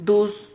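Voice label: "dus", Spanish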